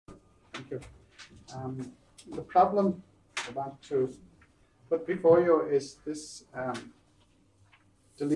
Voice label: Train To Wick Scotland Linguistic Lecture